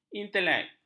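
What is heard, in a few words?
"intelek", Malay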